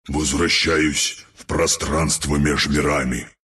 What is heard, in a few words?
death, ru